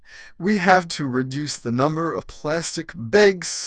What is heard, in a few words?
amused; emov db